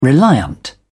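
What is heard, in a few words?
"reliant", British English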